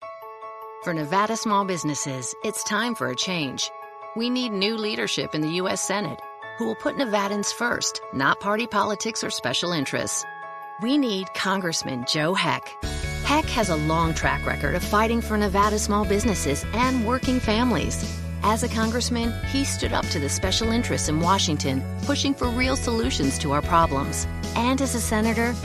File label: Radio Spot; NFIB; Joe Heck